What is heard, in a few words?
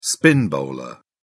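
"spin bowler", British English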